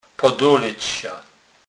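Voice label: "podolec", Polish, Kurpie dialect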